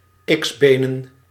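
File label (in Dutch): "X-benen", Dutch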